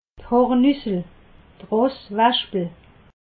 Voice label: "hornessel", alsace

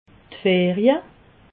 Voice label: "ferie", alsace

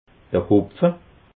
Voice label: "hopfe", alsace

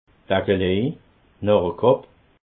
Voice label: "akelej", alsace

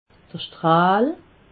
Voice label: "strahl", alsace

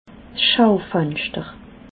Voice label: "schaufenschter", alsace

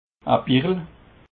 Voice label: "bichle", alsace

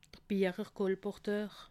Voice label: "biecherkolporteur", alsace

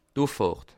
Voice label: "uffahrt", Alsace

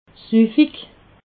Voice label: "suffig", alsace

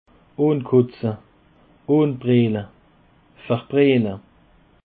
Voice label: "unkotze", alsace

